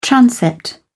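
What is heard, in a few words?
"transept", British English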